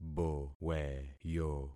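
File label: "boweyo", concatenated syllables; Affixing experiment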